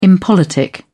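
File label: "impolitic", British English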